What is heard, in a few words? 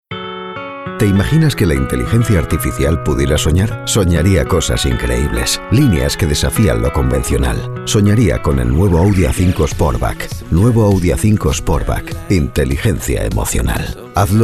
spanish spain male commercial